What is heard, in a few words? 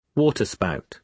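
"waterspout", British English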